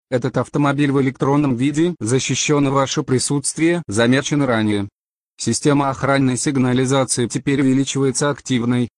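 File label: Russian, camper alarm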